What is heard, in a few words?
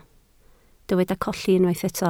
"colli", Welsh